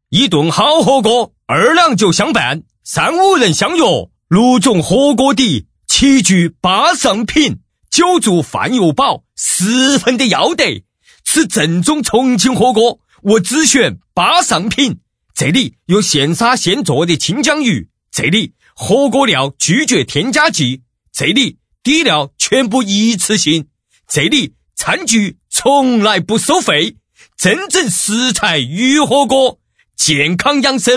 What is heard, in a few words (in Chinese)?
男声; 重庆巴尚品